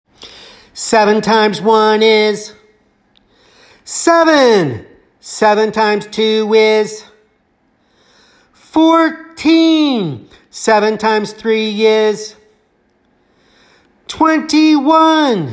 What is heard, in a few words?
7x7 Pause Chant